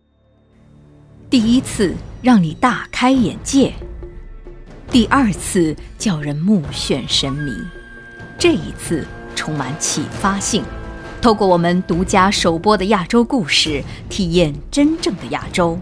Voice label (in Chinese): 宣传片配音; 国家地理频道